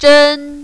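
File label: "zhen" first tone, mandarin, female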